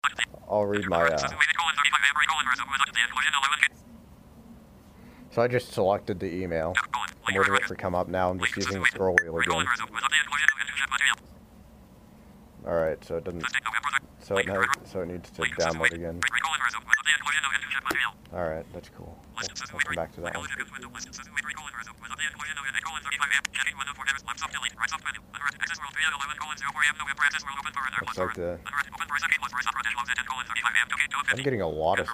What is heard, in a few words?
voicemail